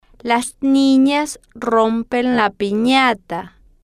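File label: las ninas rompen la pinata, Spanish, female, slow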